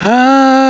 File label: male voice